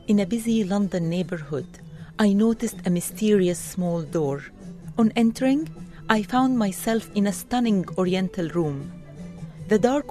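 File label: Arabic English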